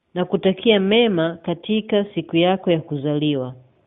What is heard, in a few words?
birthday swahili